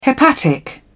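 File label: "hepatic", British English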